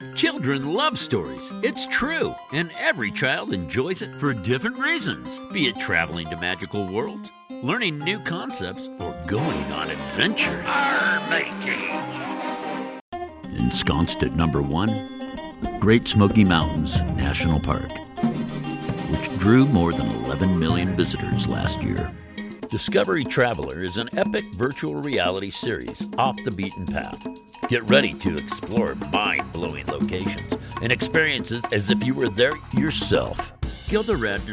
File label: Narration, Male voices